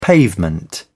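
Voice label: "pavement", British English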